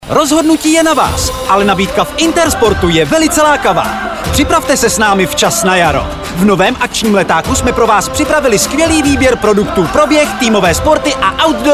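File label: Czech, male, commercial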